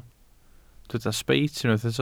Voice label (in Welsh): "sbeit", Welsh